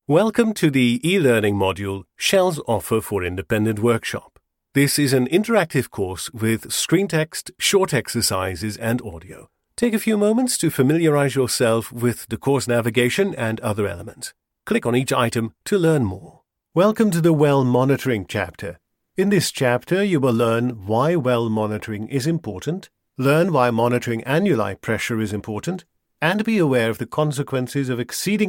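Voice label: male, Dutch accented English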